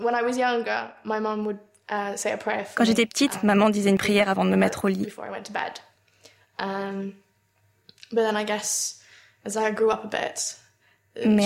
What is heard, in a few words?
voice over voix angl; Arte